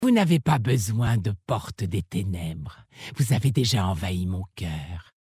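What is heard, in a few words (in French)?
French Femme Flirt; OrcMaghar; Portedestenebres; Les orcs mag’har sont la future race alliée de Battle for Azeroth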